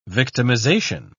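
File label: "victimisation", British English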